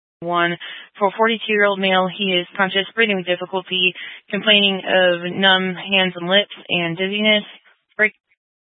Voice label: Fire Dispatch, scanner

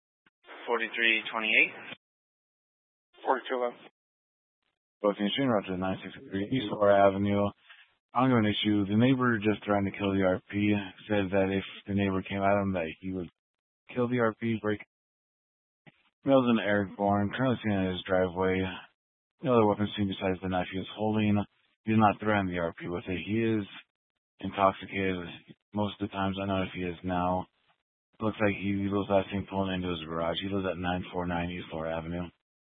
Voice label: Sheriff Dispatch; Mesa County

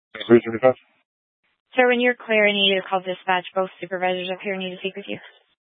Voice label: Police Dispatch, scanner; Police 1 Dispatch

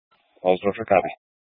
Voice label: Incident Tac 3, scanner

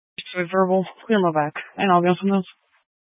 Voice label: Mesa County Sheriff Dispatch

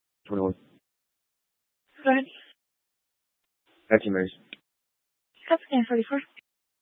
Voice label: Fire Department Dispatch; Grand Junction

